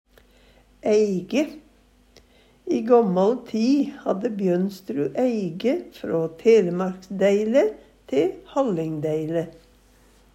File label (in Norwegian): "æige", Numedalsmål